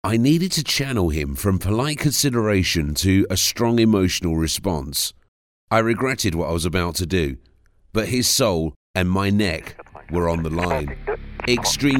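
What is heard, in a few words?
British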